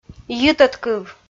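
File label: "йитӧдкыв", Komi, female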